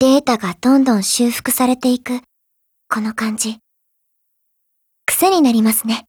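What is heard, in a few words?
feed, Japanese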